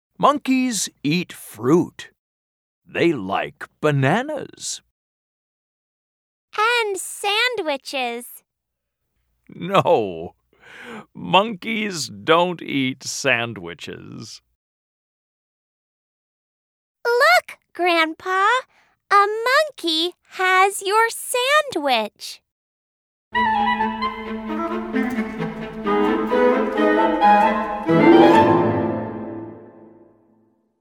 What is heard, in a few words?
At the Zoo US English; AmE; logos-english; oxford read and imagine